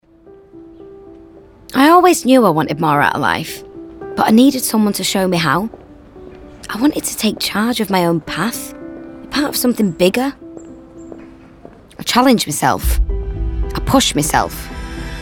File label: Manchester Accent Reel; Royal Navy